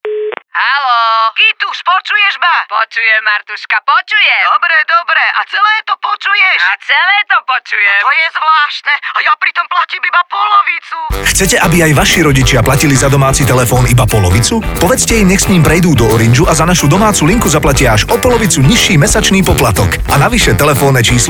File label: radio